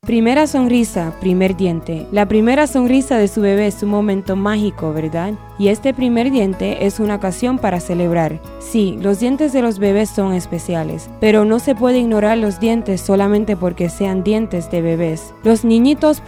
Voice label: oral health spanish; dph

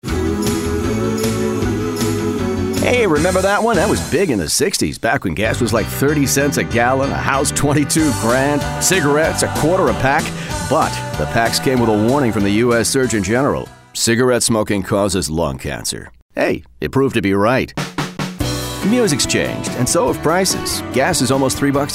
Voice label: radon radio psa english; dph

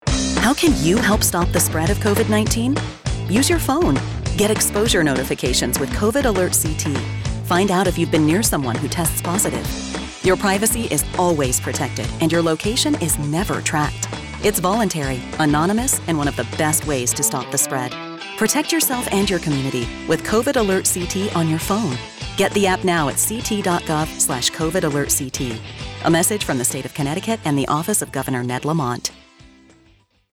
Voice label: Radio English; COVIDAlertCT

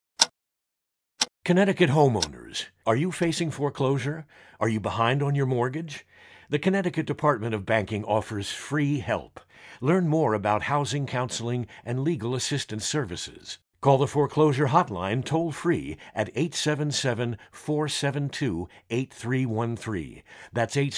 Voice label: foreclosure hotline psa english

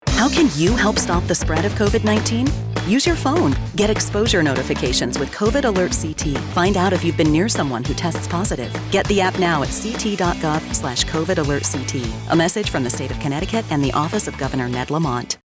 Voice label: Radio Spanish; COVIDAlertCT

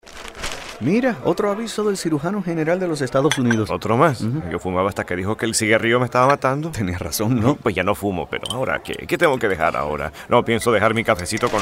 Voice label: radon radio psa spanish; dph

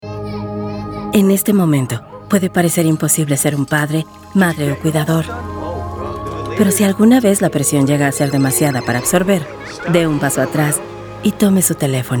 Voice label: Talk it Out RADIO Spanish; DCF

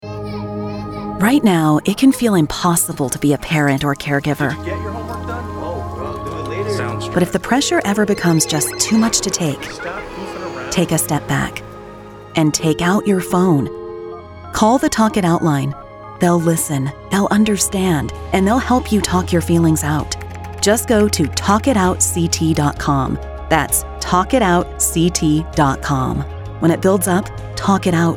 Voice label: RADIO; DCF; COVID

